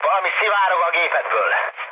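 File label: Hungarian tank damaged mood low, speech; War Thunder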